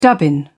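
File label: "dubbin", British English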